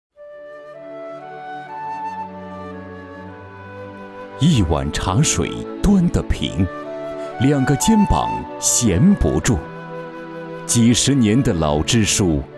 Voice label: 讲述类颁奖词

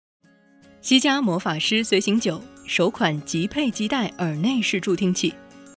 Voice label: 中文广告成女

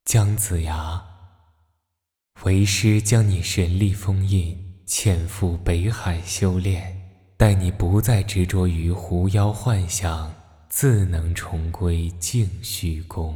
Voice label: 师尊版 特效版

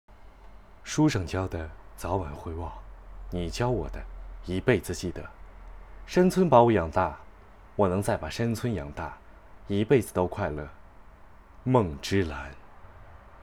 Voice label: 配音广告

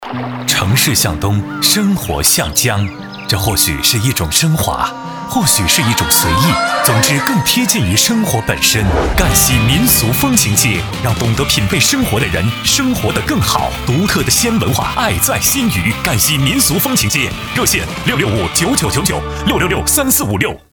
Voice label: 风情街广告; 赣西风情街

